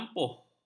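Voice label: "ampuh", Malay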